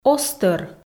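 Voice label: "оз тӧр", Komi, female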